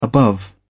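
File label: "above", English, British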